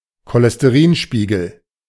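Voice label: "Cholesterinspiegel", German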